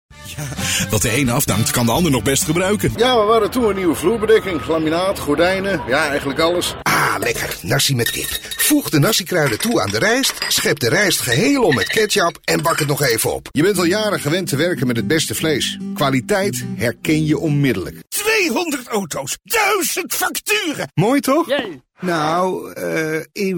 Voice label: male dutch voice over talent character